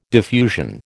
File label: "diffusion", American